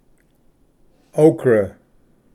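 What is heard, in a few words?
"okra", English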